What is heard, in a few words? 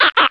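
goblin ambient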